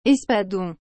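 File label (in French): "espadon", French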